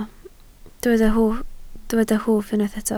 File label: "hwff", Welsh